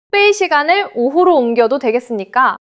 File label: neutral, monologue